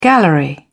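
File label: "gallery", British English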